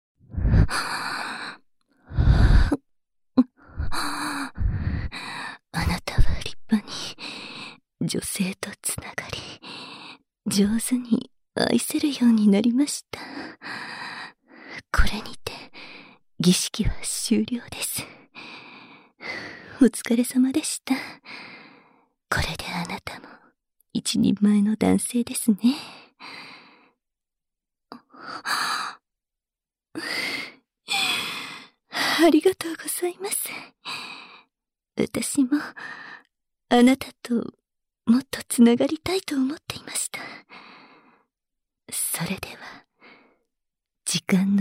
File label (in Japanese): 本気の種付けオホ声交尾; SE有り; 妻になれ！一人前の雄として